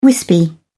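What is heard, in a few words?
"wispy", English, British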